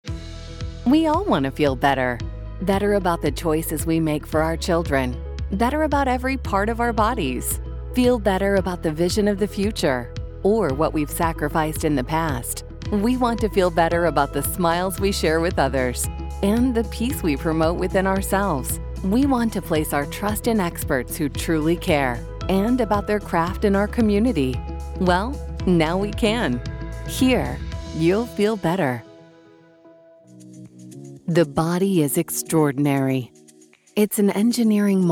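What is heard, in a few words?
Medical Narration, female voice actor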